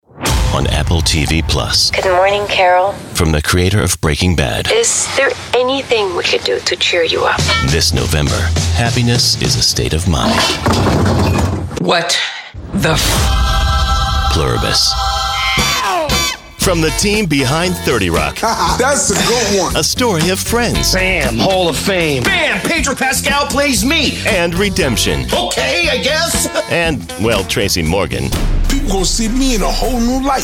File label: Promo